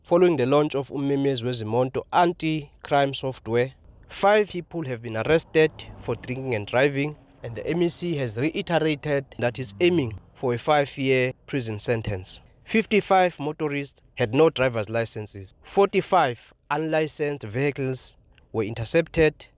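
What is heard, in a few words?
English; Software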